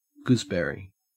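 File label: "gooseberry", Australian English; pronunciations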